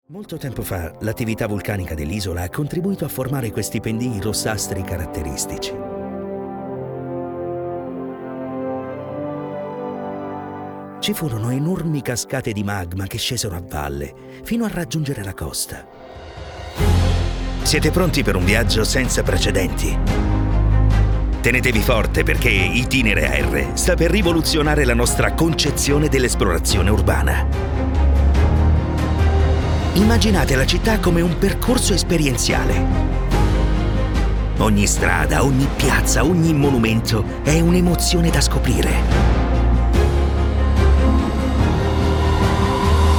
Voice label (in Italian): Documentari e Corporate